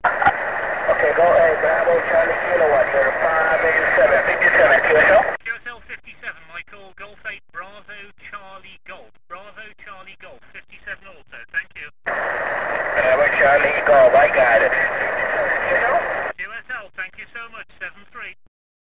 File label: qso